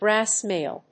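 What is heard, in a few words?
"brass nail", English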